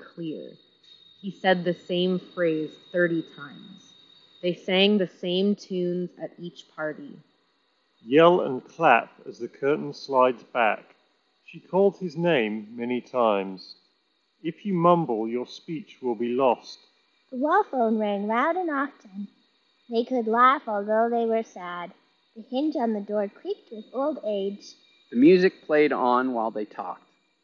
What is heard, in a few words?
Bose NCH700 Street microphone sample